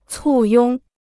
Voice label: "簇拥", Chinese